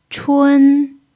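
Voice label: "chun1", Chinese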